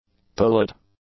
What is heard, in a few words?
"pullet", English; nouns